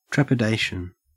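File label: "trepidation", Australian English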